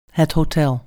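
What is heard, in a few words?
"hotel", Dutch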